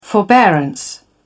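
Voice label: "forbearance", en, uk British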